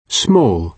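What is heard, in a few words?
"small", British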